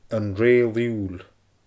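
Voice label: "An Reul iùil", Scottish Gaelic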